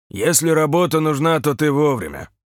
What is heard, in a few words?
truck Driver greeting